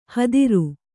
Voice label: "ಹತ್ತಿರು", Kannada